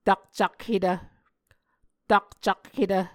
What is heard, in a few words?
"takcakhetv", Muscogee